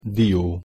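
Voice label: "dio", Hungarian pronunciation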